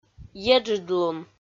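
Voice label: "еджыдлун", Komi, female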